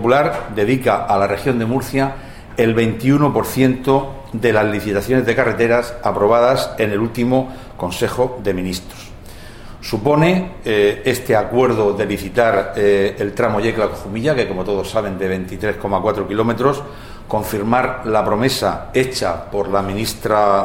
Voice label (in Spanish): anuncio licitación; Yecla; Jumilla